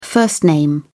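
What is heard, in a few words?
"first name", British English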